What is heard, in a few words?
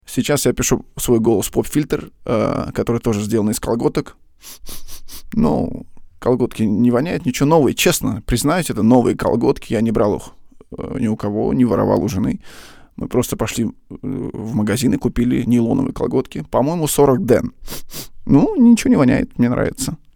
mic popfilter